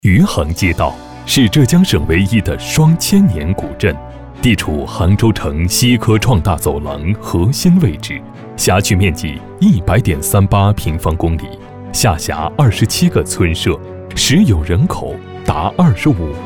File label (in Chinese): 男 专题 大气 警务类警情; 余杭